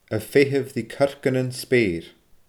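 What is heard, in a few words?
"a feitheamh ri corca nan speur", Scottish Gaelic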